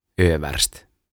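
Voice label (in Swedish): "överst", Swedish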